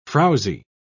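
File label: "frowsy", British English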